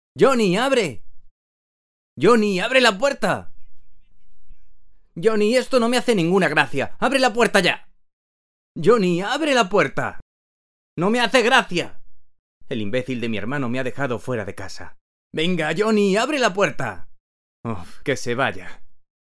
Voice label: locutor espanol spanish voiceover

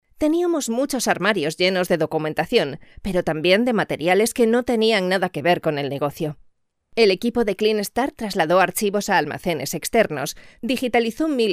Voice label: locutora spanish voiceover

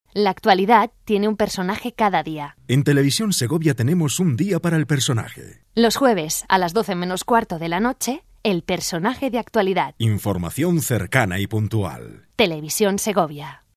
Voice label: voces corportivas; promos tv

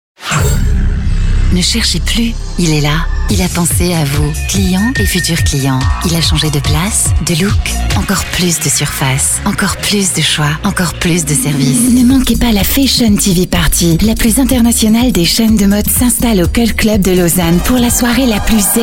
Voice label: locutora francesa

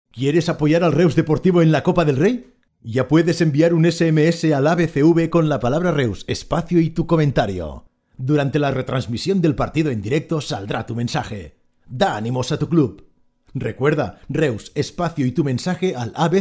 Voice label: locutor castellano

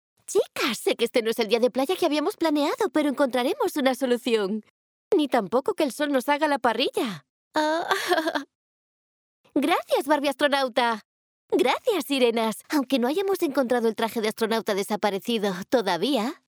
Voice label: locutora niña dibujos animados

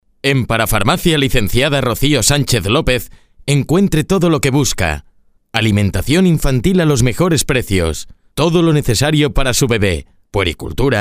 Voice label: locutor spanish voiceover

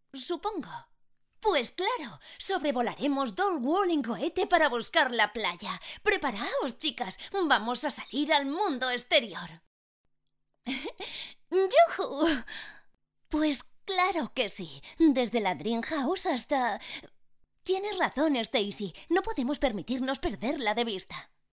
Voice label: locutora doblaje dibujos

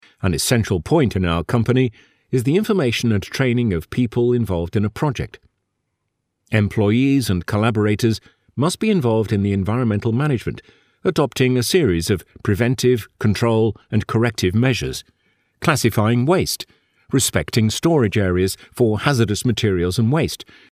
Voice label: locutor ingles english voiceover; English British male voice over

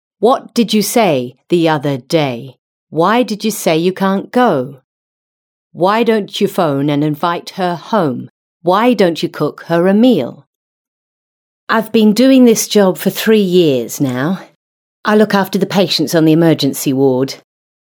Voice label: locutora inglesa

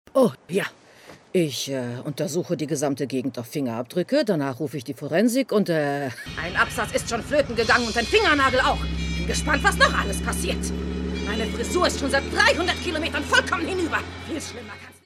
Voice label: locutora alemana german voiceover

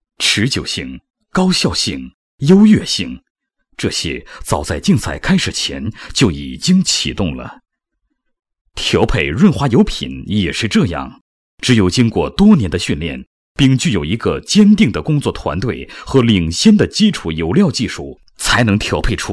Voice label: locutor chino; chinese male voiceover

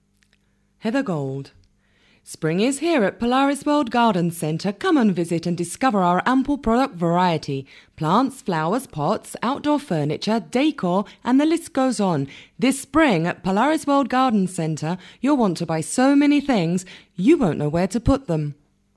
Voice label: locutora inglesa

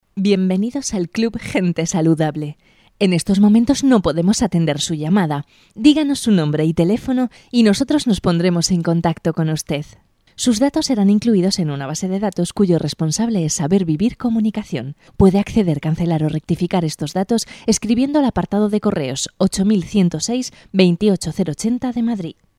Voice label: locutora, contestadores telefonos; saber vivir

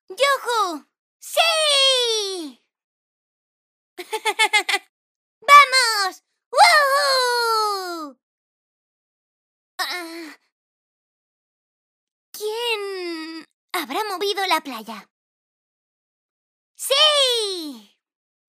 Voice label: locutora infantil doblaje dibujos

